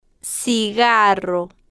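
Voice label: "cigarro", slow, spanish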